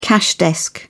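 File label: "cash desk", British English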